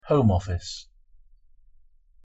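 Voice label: home office, English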